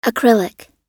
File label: "acrylic", us